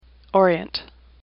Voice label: "orient", English; AWL